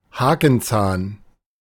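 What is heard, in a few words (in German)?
"Hakenzahn", German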